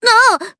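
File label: Vox Japanese; Cecilia; kingsraid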